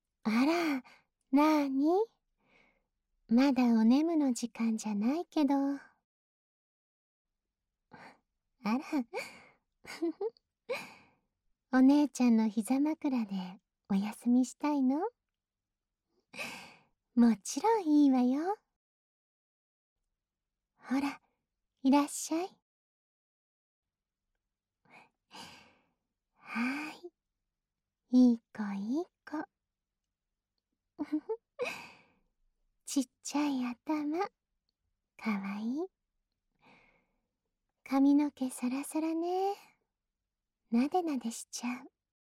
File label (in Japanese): 耳かきSEなし